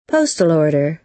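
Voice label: "postal order", American English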